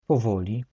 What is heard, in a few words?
polish slowly, polish